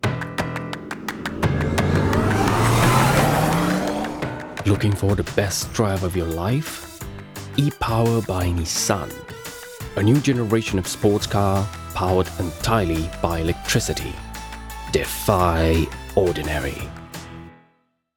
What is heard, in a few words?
English; Nissan